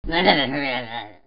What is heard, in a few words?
pirate angry